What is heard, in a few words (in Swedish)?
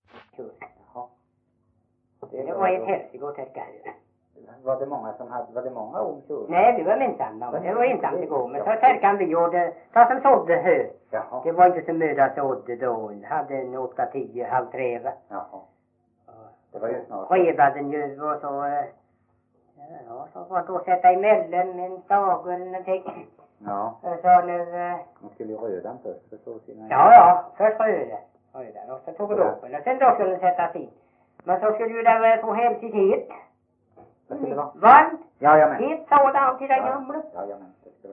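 Veddige Halland, Svenska sagor dialekter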